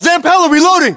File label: inform reloading, battlechatter, English, US